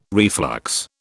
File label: "reflux", British